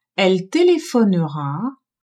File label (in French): "elle telephonera", french